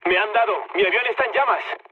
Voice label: on fire mood low; War Thunder